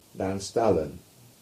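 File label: "Nanstallon", English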